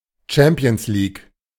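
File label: "Champions League", German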